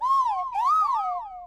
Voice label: notification oh no; the clangers phone sounds